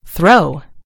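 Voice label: "throw", American English; gcompris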